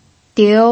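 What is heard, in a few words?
"diu", Mandarin Chinese, second tone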